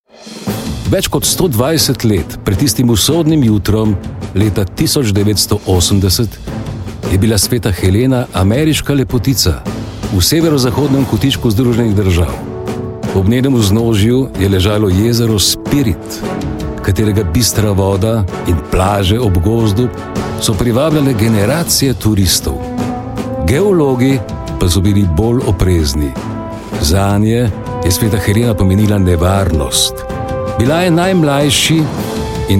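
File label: slovenian male commercial; voice demos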